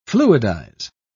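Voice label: "fluidize", British English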